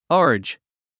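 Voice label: "urge", English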